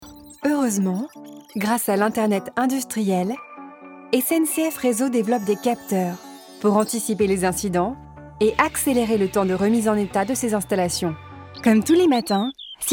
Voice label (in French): Medley INSTITUTIONNEL CORPORATE